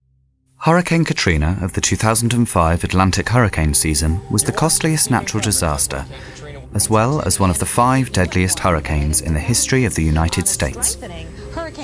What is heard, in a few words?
Narration; Hurricane Katrina; Vocal Point